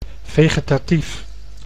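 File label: "vegetatief", Dutch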